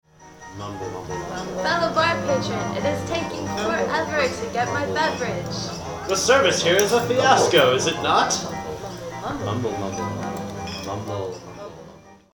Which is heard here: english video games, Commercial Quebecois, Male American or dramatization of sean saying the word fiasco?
dramatization of sean saying the word fiasco